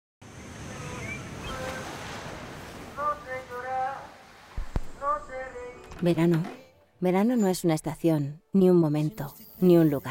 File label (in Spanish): TONO NATURAL; SPRINGFIELD